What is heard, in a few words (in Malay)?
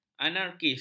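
"anarkis", Malay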